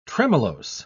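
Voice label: "tremellose", British English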